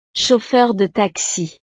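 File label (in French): "chauffeur de taxi", French; profesiones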